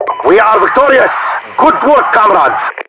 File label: announcer allies elim, Voiceovers